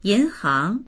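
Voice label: "yin2hang2", Mandarin Chinese; yínháng; 银行; 銀行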